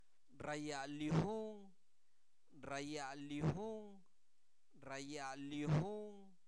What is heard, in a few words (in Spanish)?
Zapoteco Albarradas, santo domingo albarradas; INALI; verbos; se refresca